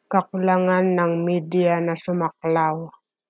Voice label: Tagalog; lack of media coverage - kakulangan ng medya na sumaklaw